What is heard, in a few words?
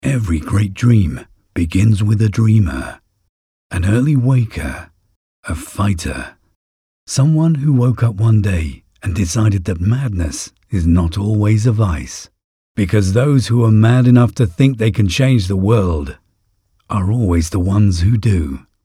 Deep voice British accent movie trailer